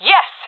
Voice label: cheer, jungle fem; Counter Strike Model Pack; CS:GO